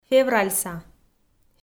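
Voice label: "февральса", Komi, female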